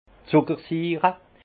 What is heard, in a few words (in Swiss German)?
"zucker siire", alsace; vin; bonbon anglais sucréacide